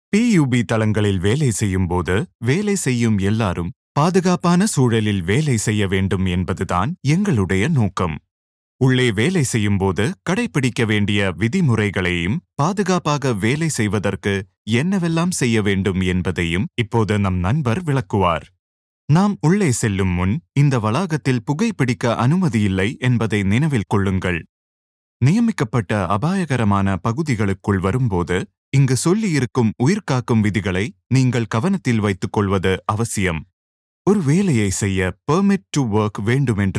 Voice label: Internal Safety Briefing VO Tamil

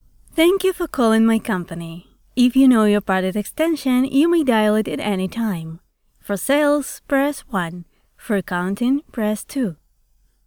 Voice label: ENG IVR

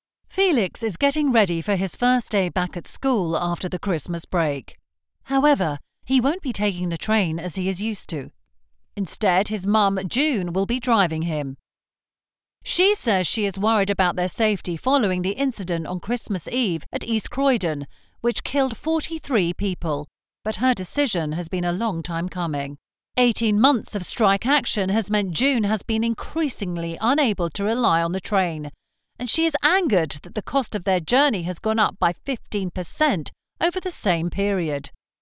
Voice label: NEWSREADER; VOQUENT

